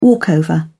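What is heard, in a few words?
"walkover", British English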